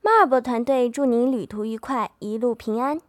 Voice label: Chinese Simplified